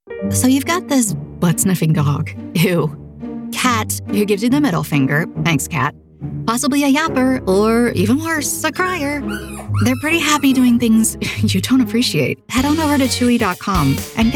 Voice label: 30s40s Commercial